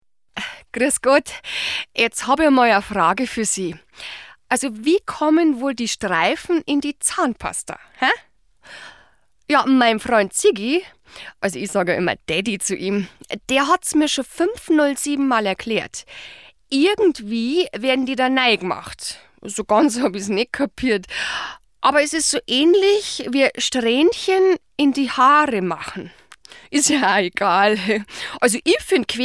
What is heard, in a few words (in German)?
Dialekt Bayerisch